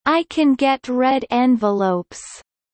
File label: Kelly low